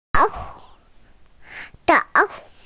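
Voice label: "sao3", Mandarin Chinese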